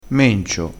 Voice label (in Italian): "mencio", crespinese